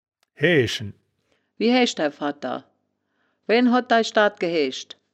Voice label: "haeaeschen", wittlicher woerterbuch; das wittlicher woerterbuch; woerterbuch vertonung

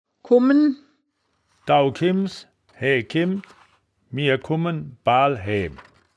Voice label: "komm n", wittlich

